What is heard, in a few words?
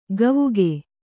"ಕವಿಕೆ", Kannada